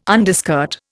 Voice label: "underskirt", Indian